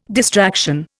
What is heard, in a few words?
"distraction", Indian